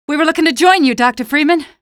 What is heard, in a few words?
squad reinforce group, female; Half-Life 2